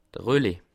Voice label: "relais", Alsace

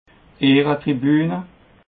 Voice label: "ehre tribune", alsace

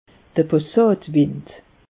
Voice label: "passat wind", alsace; meteo

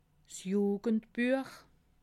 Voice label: "jugendbuech", alsace

